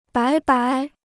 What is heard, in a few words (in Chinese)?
"白白", Chinese